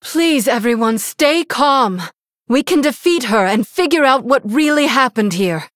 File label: Female Human; Jaina; Valeera